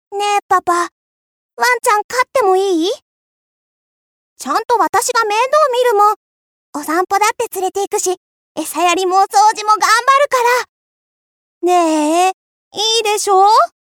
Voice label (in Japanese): 少女